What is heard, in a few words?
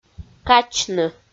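"качны", Komi, female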